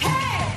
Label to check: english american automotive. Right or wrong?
Wrong